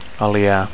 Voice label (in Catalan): "aliar", Catalan; to ally